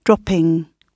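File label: "dropping", British English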